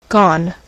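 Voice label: "gone", US English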